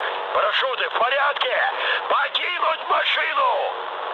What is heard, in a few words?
bailout, mood high, speech ru; War Thunder